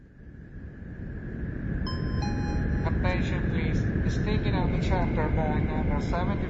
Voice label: Airport Announcement

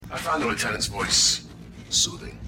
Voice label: Soothing Voice

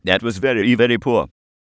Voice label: Old Man Very Poor

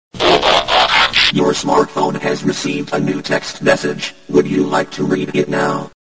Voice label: Effect Voice

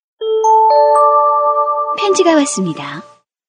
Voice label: Sms In Korean

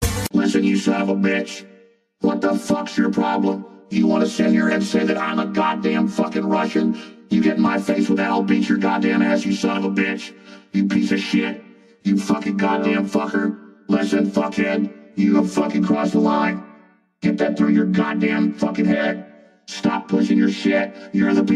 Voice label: Auto Tuned

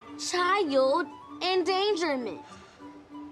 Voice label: Erica child

